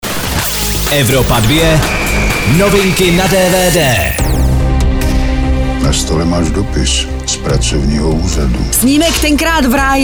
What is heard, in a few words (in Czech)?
dvd news; evropa2; tenkrat v raji